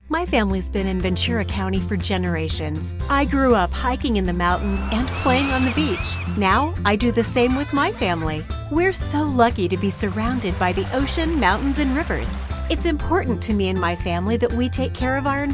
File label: General English